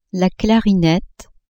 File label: "clarinette", French; instruments